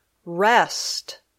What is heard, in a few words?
"rest", English